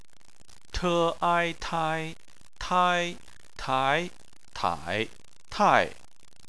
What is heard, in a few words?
"tai", chinese